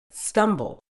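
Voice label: "stumble", British English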